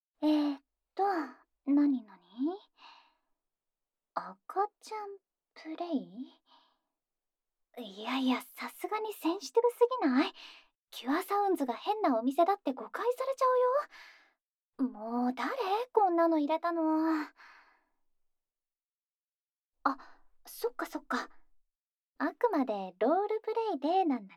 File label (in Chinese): ASMR; 小寶寶PLAY的角色扮演